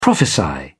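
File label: "prophesy", British English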